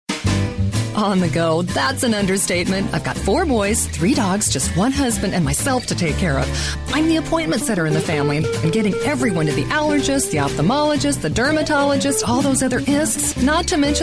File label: Commercial